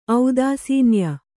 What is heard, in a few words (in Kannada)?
"ಔದಾಸೀನ್ಯ", Kannada